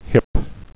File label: "hip", English